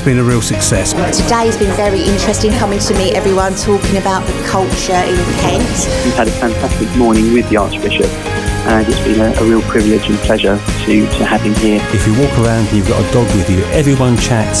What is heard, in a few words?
Community Promos